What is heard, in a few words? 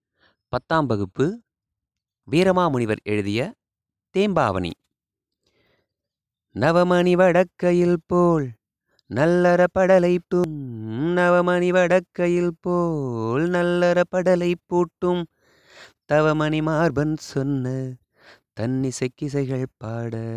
tamil memory poem; namma kalvi